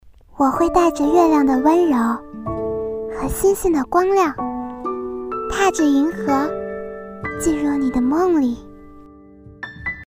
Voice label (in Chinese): 情感句子 萝莉